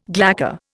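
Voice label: "glacial", Indian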